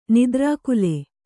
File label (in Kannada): "ನಿದ್ರಾಕುಲ", Kannada